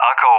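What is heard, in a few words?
"Arco", English; CallSign; Allied Flight; DCS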